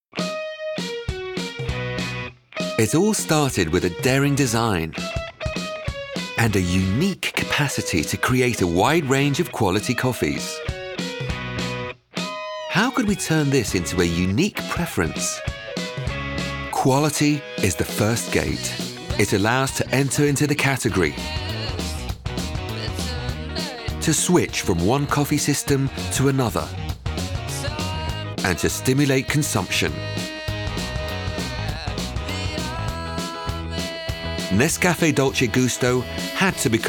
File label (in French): narration; NESCAFÉ; Comédien voix off, franco-britannique, parfaitement bilingue (français / anglais)